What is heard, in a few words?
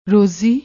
"rozi", Punjabi